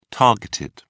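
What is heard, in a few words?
"targeted", British English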